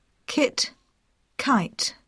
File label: "kit" "kite"; poitiers